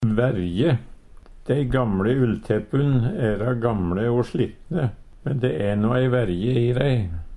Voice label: verje, Numedalsmål; Dei gamle ullteppun æra gamLe o slitne, men de e no ei verje i dei.

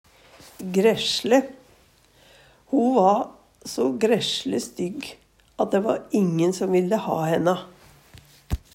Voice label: graessele, Numedalsmål; Adverb

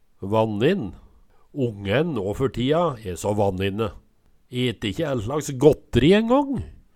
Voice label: "vannin", Numedalsmål

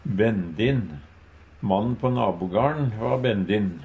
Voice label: "bendin", Numedalsmål; Adjektiv

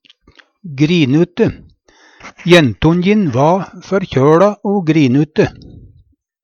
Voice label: grinute, Numedalsmål; Adjektiv

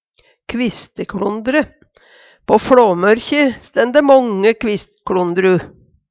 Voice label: kvistkLondre, Numedalsmål

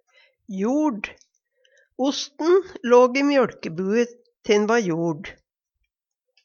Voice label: "joRd", Numedalsmål; Hushald, mat, drikke; Adjektiv

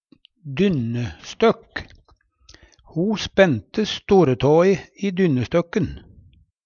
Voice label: "dynnestøkk", Numedalsmål; Substantiv hankjønn; Ho spente storetåe i dynnestøkken / durstøkken.

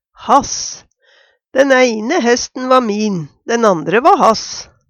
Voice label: "hass", Numedalsmål; Den eine hesten va min, den andre va hass.; genetivform av han

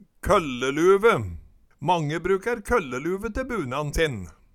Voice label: "kølleluve", Numedalsmål

